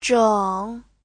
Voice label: "zheng3", chinese; 整; chẵn, tròn, đúng